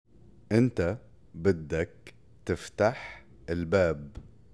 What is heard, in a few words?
Spoken Arabic phrases